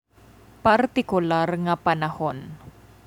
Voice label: Cebuano